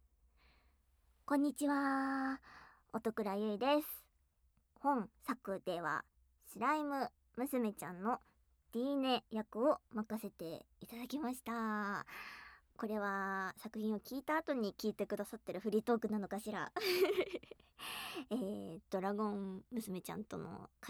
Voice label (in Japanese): フリトーク; モンスター娘とあまあまorハードH～囁き耳舐め/14人増殖/チ〇ポ分裂/咆哮オホ声火噴きSEX～